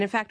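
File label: "ii fact", American English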